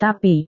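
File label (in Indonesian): "tapi", Indonesian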